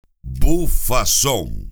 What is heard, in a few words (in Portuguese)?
vinhetas locutor; Bufa Som